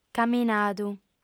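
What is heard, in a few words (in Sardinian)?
"camminadu", female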